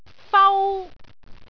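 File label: "fau1", Cantonese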